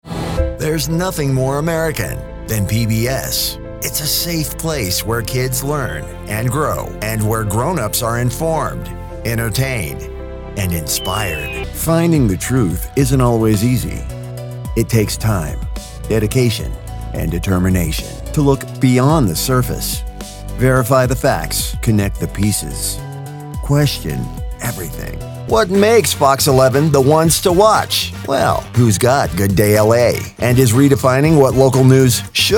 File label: PROMO